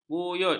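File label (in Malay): "buyut", Malay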